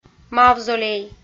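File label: "мавзолей", Komi, female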